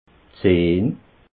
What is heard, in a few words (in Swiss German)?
"zahn", alsace